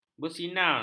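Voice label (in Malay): "bersinar", Malay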